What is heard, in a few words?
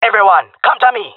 request regroup, jungle male; vo; agents; Source Model Packs; Counter Strike Model Pack; CS2